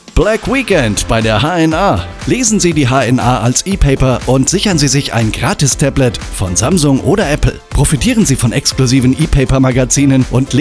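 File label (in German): Funkspot, Black Weekend Deal; 27 Sek; HNA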